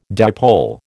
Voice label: "dipole", American